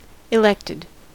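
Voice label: "elected", American English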